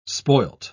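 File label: "spoilt", British English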